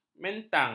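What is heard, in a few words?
"mentang", Malay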